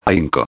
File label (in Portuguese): "ahinco", espanhol